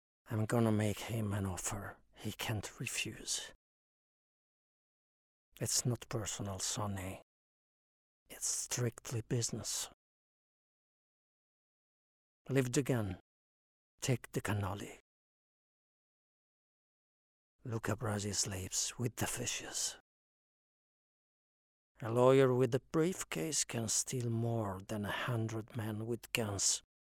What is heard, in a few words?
English impersonations